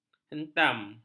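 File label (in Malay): "hentam", Malay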